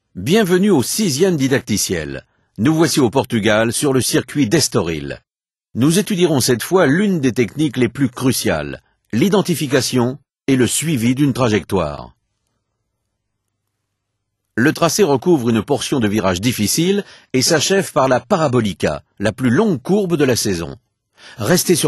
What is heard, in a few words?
racingline french; MotoGP 3